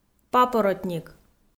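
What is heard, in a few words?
"папоротник", Komi, female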